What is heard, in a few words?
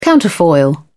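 "counterfoil", British English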